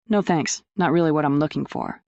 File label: fem